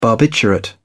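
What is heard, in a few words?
"barbiturate", British English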